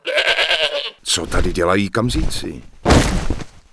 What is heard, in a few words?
Human Male Hello, Human Vocal Male; wowpreklad; czwow